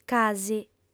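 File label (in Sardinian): "casi", female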